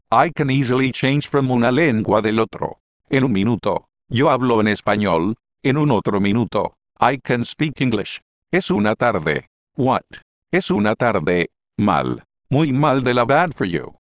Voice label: spanish, dectalk